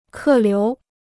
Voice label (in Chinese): "客流", Chinese